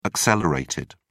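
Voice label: "accelerated", English, British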